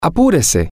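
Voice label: hurry up, spanish, male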